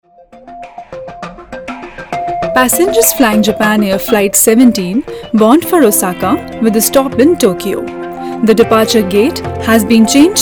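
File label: inflight english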